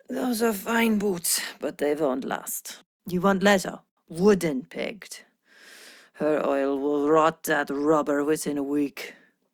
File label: Ost Fem en; Cetus